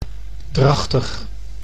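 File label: "drachtig", Dutch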